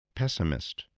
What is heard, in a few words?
"pessimist", voice, English